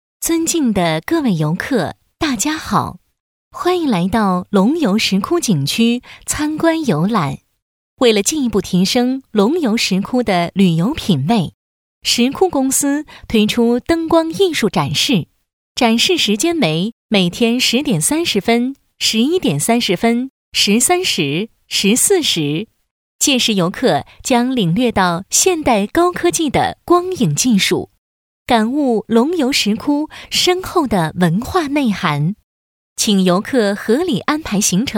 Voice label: 女 专题 景区提示语

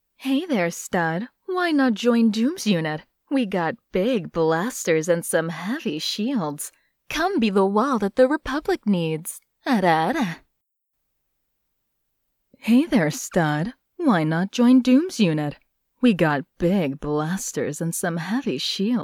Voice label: 外籍英语女 角色 御姐

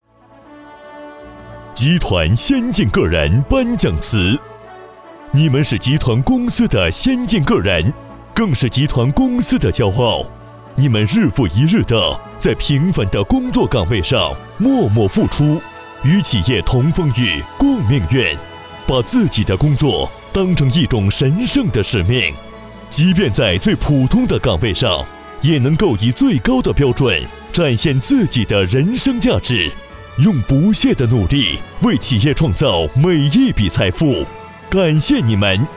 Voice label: 男 颁奖; 宇通山东

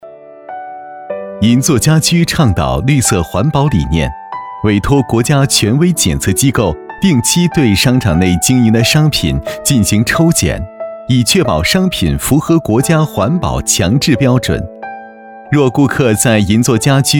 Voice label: 男 广告 家居店内 舒缓

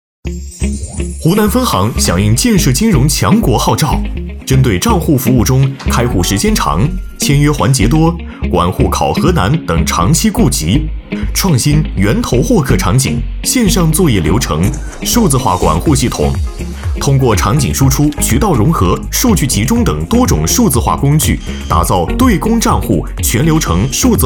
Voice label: 男,MG,大气活力; 飞碟说

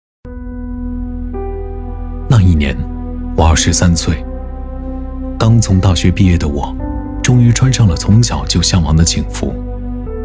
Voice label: 男 军配 警察节; 2024警察节

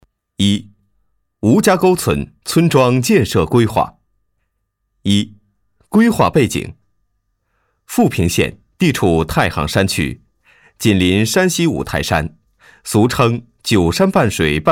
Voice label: 男 专题汇报

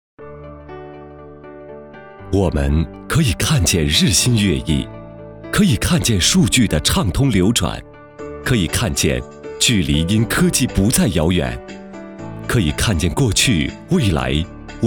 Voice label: 男 科技感

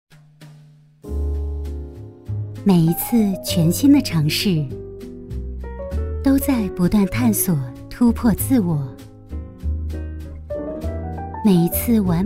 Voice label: 女; 天宝美容医院

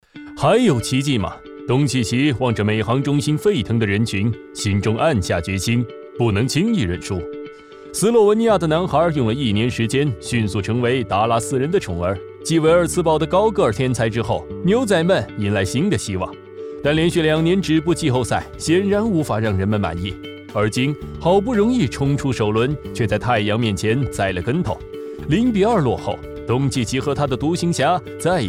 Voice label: 男,解说,篮球

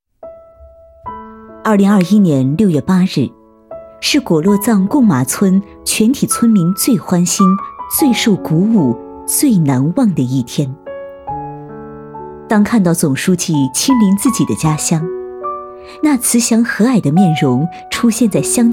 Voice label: 宣传片 大气有感情; 刚察县

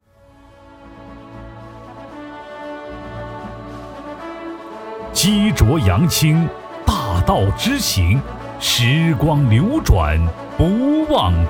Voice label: 男 党建 党风廉政建设; 桐庐县党风廉政建设

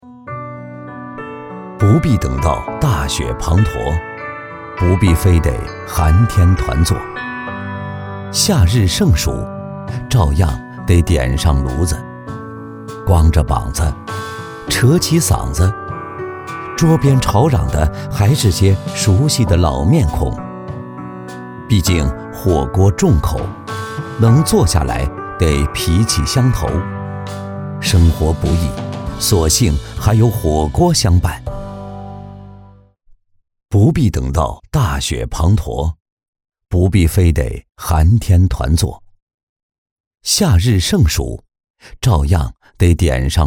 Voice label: 男 走心旁白 磁性浑厚; 熟悉的老面孔

